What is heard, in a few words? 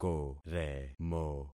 "koremo", concat syllables; Affixing experiment